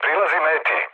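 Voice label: Serbian near waypoint mood low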